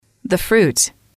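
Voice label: "the fruits", English, female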